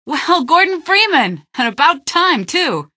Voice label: about time, female; npc; Garry's Mod; hl2